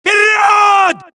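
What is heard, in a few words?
suppress, dialogue, Allies; mohaa; Mission 3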